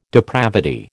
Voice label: "depravity", American

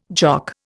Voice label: "Jock", Indian

